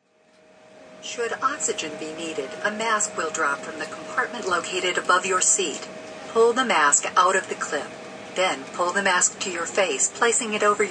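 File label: Plane Safety Instructions, Stewardess announces safety instructions before airline takeoff; Airplanes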